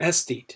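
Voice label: "aesthete", US English; pronunciations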